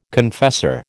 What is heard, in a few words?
"confessor", American